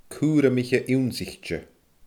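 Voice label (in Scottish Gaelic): "cùramaiche ionnsaichte", Scottish Gaelic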